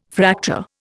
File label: "Fraktur", Indian